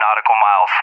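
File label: "Nautical miles", English, USA; DCS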